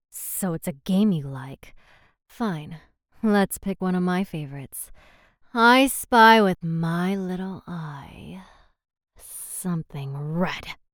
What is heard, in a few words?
Character Sample Calm Confident